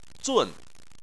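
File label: "zun" fourth tone, chinese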